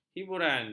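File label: "hiburan", Malay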